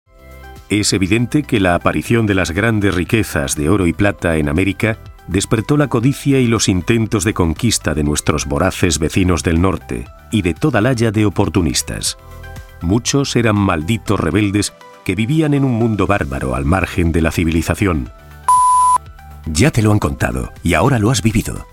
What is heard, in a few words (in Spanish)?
Espanol Espana Masculina Adulta